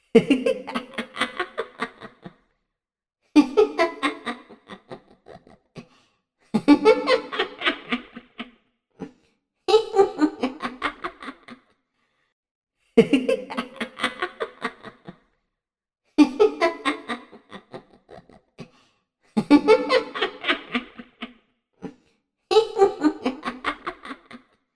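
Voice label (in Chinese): 国语 女声 巫婆阴森嘲讽; 游戏-巫婆阴森笑声01 素人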